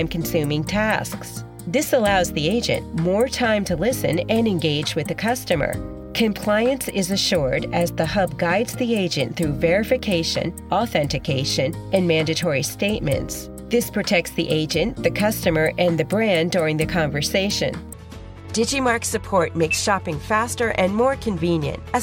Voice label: Explainer voice over